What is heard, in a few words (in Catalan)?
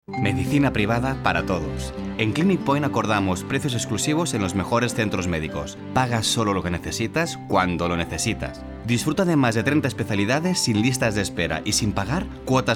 anunci radio; clinicpoint